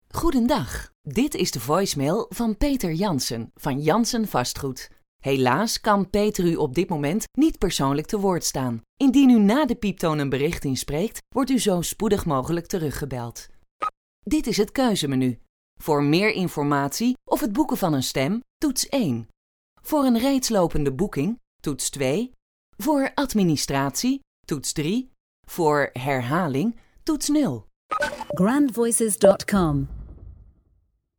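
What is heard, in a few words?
DUT F, Dutch female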